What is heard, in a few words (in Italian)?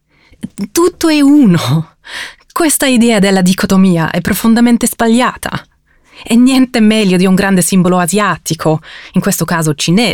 ITALIAN